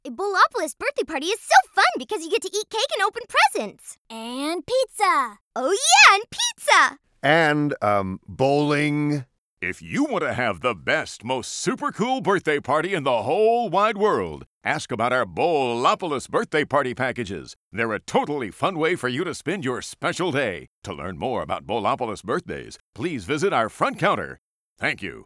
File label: Audio Promo; Bowlopolis Birthday Party